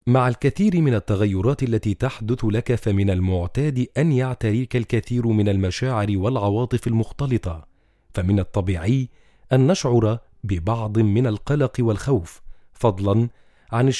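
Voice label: Arabic male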